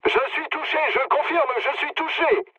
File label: aircraft destroyed mood low, speech fr